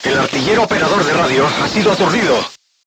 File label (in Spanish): voice message machine gunner contused, Spanish